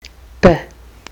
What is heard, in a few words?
"b", kiwi english